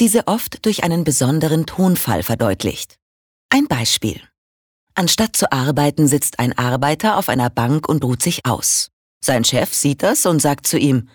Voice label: Sarkasmus Freundlich

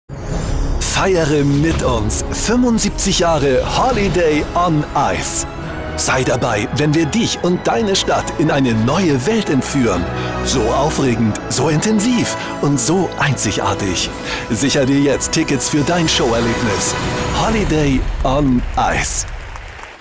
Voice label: Aufgeregt; Fremdtake

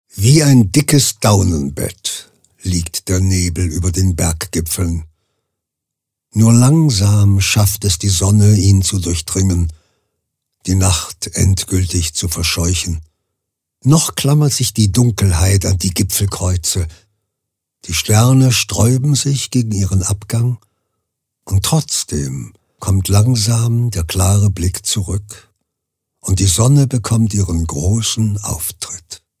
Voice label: Schauspiel Sanft

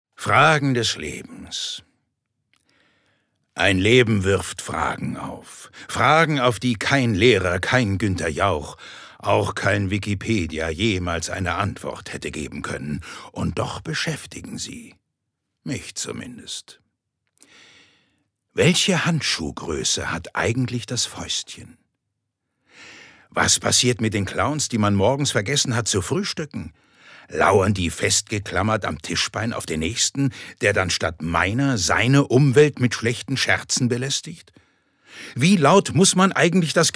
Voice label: Schauspiel Dynamisch

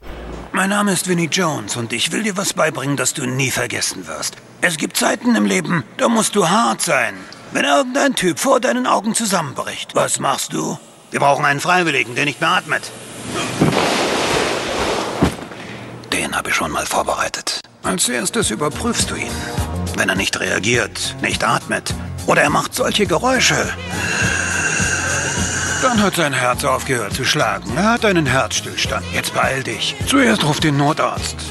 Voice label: Lebendig

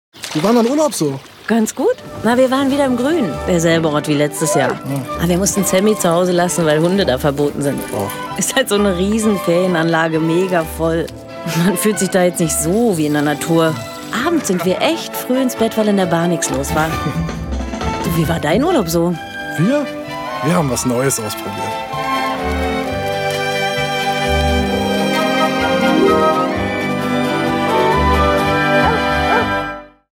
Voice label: Dynamisch

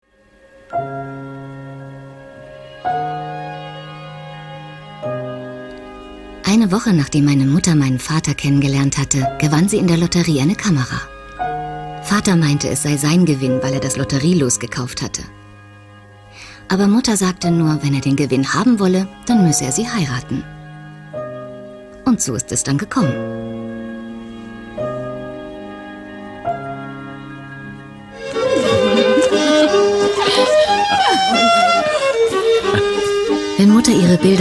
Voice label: Off Sanft

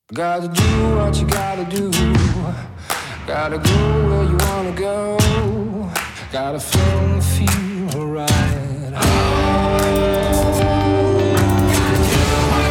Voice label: Werbung Cool

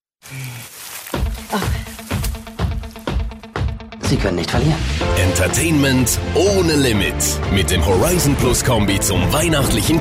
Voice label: Akzent Schweizerdeutsch; Fremdtake

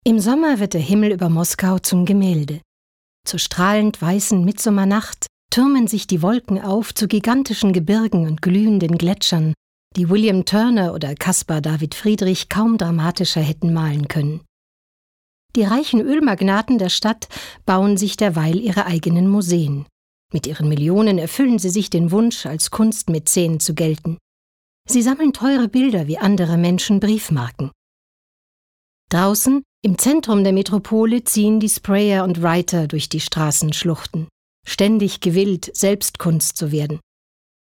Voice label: Kommentar Neutral

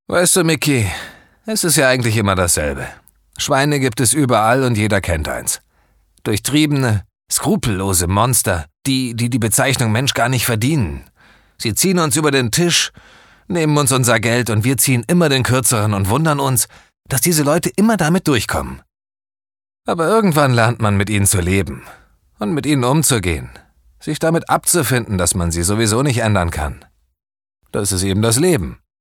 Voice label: Schauspiel Cool

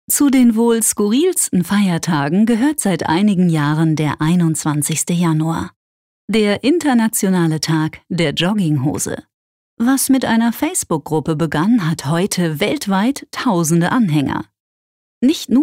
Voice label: Witzig; Jogginghose

